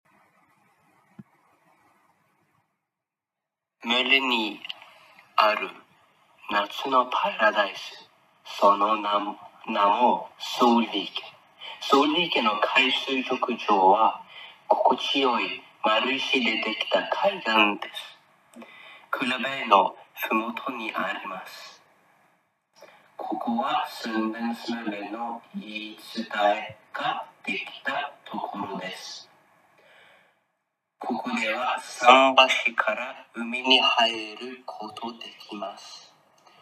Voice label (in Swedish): Japanska